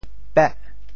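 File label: "peh8", Teochew; 潮州; 白刺虾; 南美白对虾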